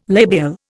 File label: "labial", Indian